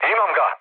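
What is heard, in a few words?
Serbian player killed enemy mood low; War Thunder; speech srb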